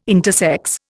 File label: "intersex", Indian